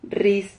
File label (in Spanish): "rris", silabas